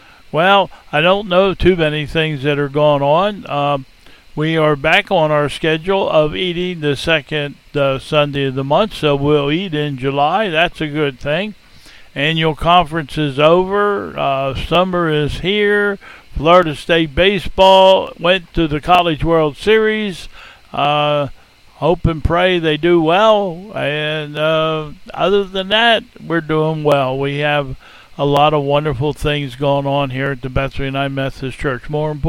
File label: Welcome and Announcements